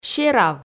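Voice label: "серав", Komi, female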